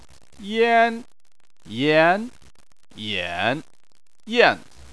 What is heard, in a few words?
"yan", chinese